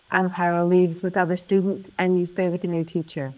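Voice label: Voice Acting es, female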